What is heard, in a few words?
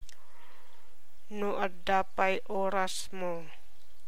Ilocano